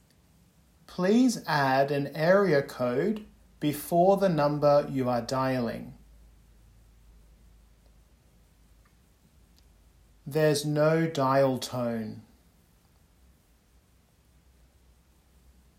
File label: telephone phrasebook english